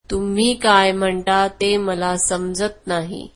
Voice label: marathi I dont understand you

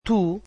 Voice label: "you", Portuguese

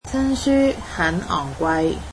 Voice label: "new books are expensive", Cantonese

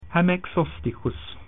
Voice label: latin train

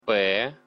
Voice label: belarusian alphabet p

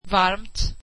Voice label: swedish warm

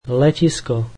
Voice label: slovak airport

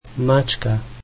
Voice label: slovak cat

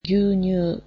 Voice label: "milk", japanese

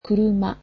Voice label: car, japanese

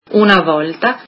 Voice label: once, italian

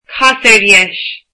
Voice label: irish Turn right

